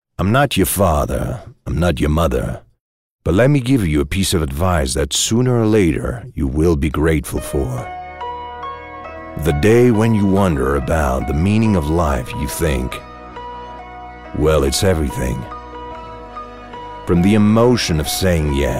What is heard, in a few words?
english